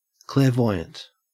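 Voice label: "clairvoyant", English, Australian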